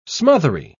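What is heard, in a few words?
"smothery", British English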